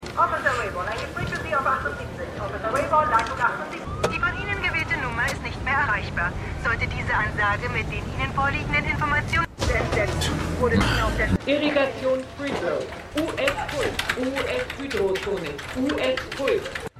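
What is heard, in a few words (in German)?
Funk Telefonstimme Reporterin Prüfungsfrau; The Wire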